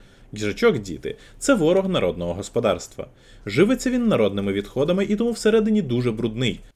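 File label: Razer stand, microphone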